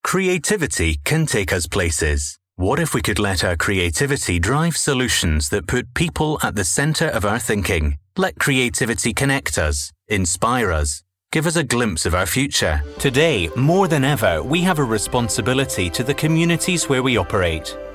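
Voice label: English Scottish, male, corporate; accent of scotland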